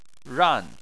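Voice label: "ran", Chinese, tone 4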